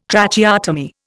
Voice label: Tracheotomy